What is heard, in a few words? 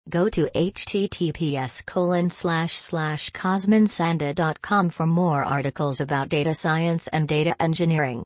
recites some shameless self promotion ad; voice recognition with mxnet and sagemaker